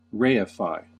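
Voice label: "reify", American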